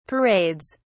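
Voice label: "parades", British English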